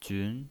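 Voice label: "jun", second tone, pinyin voice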